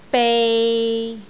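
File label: "bei6", Cantonese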